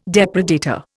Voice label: "depredator", Indian